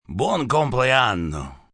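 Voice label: Birthday Italian male, Occaison, event, male, foreign, Italian; Holiday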